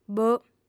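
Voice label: "boh", female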